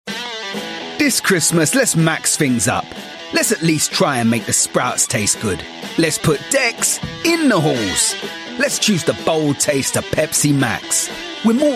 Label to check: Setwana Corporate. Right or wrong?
Wrong